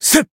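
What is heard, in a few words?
attack, Japanese; claude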